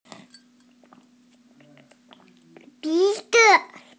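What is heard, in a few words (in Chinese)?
"bi2zi5", Mandarin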